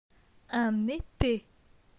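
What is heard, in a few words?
"Un ete", French; phonetique; les voyelles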